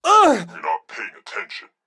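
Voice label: phone bad attention; Blind Drive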